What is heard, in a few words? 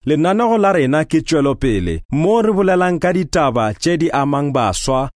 Sepedi male